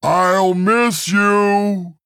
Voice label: Male Tauren Concede

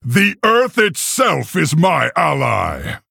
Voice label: Male Orc Start

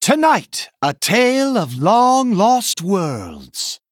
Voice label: Male Human Play

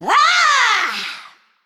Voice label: Female Naga Attack

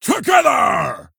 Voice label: Male Orc Attack

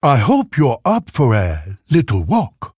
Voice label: Male Pandaren

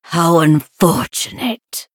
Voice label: Female San'layn Emote Concede

